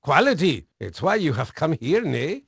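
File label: Dutch male, voice; Pirates Of The Caribbean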